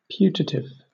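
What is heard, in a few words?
"putative", British English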